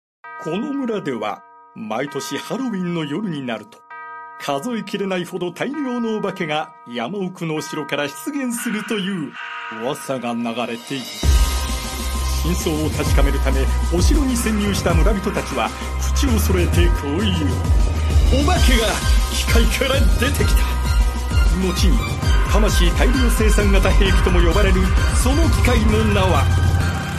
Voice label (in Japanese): ストーリーテラー